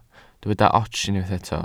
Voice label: "ots", Welsh

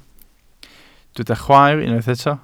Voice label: "chwaer", Welsh

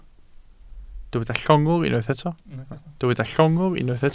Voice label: "llongwr", Welsh

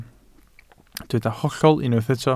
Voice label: "hollol" Welsh

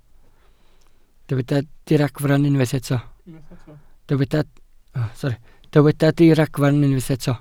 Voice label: "diragfarn" Welsh

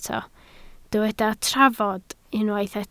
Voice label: "trafod", Welsh

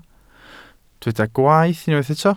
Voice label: "gwaeth", Welsh

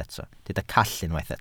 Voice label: "call", Welsh

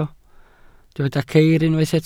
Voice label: "ceir", Welsh

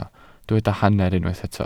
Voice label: "hanner", Welsh

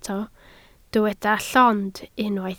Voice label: "llond", Welsh

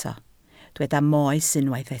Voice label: "moes" Welsh

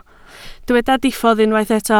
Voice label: "diffodd", Welsh

